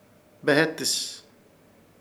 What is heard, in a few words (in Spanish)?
"Behetɨs" planta negra, Ishir Chamacoco